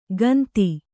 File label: "ಗಂತಿ", kannada